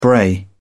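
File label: "bray", British English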